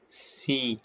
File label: short voyels arabic alphabet